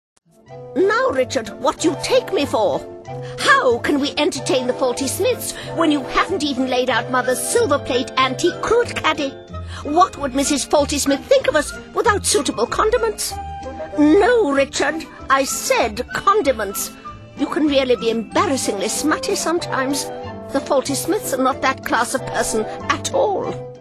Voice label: snooty, readings